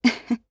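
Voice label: Vox Happy, Japanese; Glenwys; kingsraid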